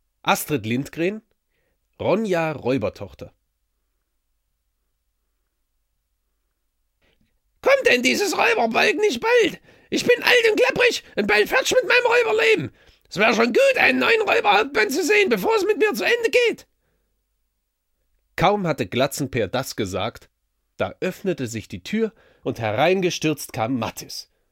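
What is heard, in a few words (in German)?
erzaehlung, deutsch männer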